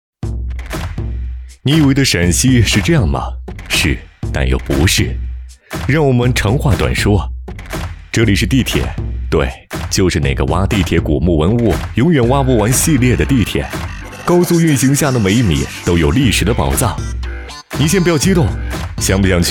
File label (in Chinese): 男, 飞碟说; 不期而遇; 陕西人才推介